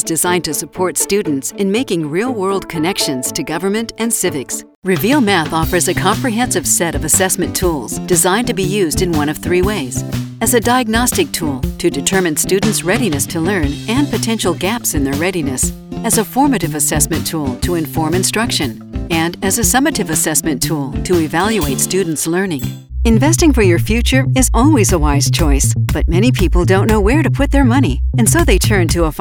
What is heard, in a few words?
Narration